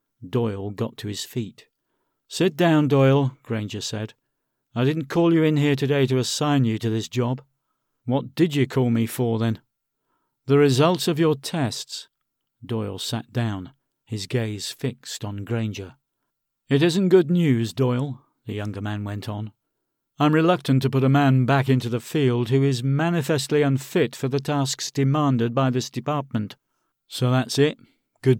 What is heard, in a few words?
Audio Book sample